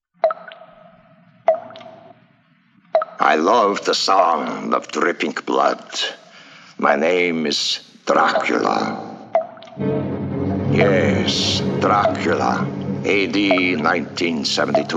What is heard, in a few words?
Radio Spots; Dracula A.D. 1972; Crescendo